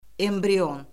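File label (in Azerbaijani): "embrion", Azerbaijani; Orfoqrafiya Lüğəti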